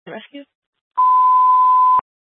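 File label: Fire Dispatch, scanner audio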